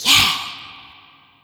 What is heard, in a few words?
"Yeah" Spring Rev, Swingin Chiks Spoken